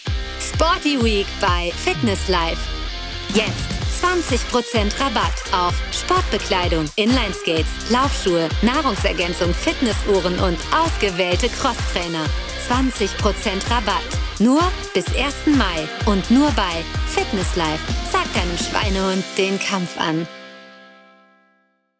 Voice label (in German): Sportwerbung